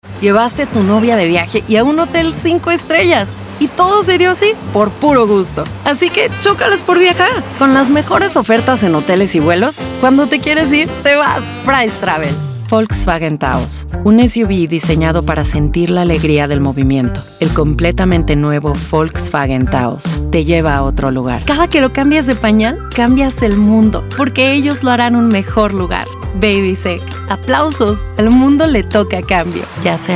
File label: spanish mexican commercial